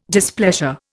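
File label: "displeasure", Indian English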